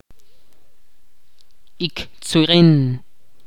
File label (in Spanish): "negro", Ch'orti'